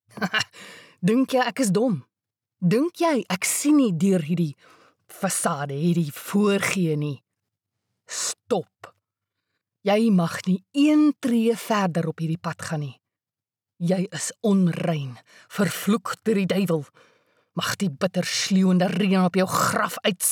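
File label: Afrikaans, female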